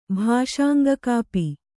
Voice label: "ಭಾಷಾಂಗಕಾಪಿ", Kannada